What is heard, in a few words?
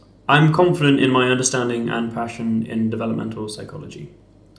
interviewee male filler